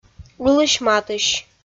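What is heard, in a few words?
"ылысь-матысь", Komi, female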